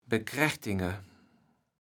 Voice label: "bekrächtinge", kaevels platt

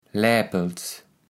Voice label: "Läpels", kaevels platt

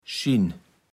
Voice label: "Schin", platt

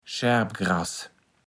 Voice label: "Schärpgras", Platt

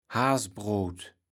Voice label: "Haasbroot", kaevels platt

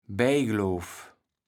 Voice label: "Bejgloof", kaevels platt

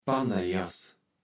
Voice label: "Panderjas", Platt

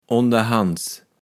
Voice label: "onderhands", kaevels platt

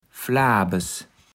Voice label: "Flabes", Platt

